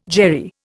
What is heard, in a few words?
"Jewry", Indian